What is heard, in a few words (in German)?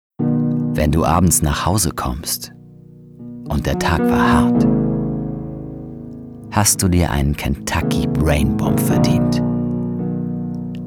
Sanft